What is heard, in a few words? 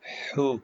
short voyels arabic alphabet